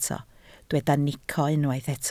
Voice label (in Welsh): "nico", Welsh